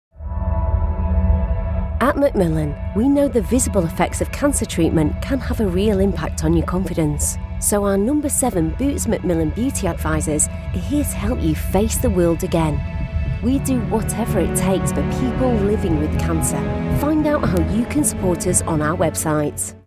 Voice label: warm reassuring